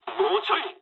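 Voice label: near waypoint mood low, speech japanese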